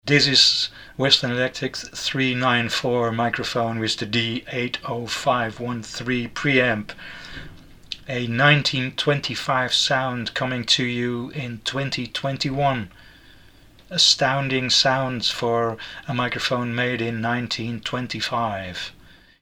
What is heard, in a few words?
Western Electric 394 uk